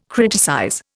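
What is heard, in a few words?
"criticize", Indian English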